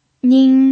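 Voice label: "nin" Mandarin Chinese, first tone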